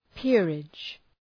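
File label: "peerage", English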